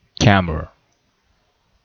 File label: "camera", pronunciation